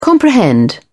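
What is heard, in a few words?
"comprehend", English, British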